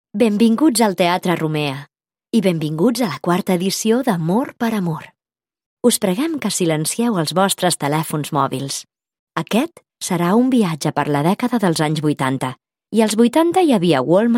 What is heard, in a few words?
catalan tv shows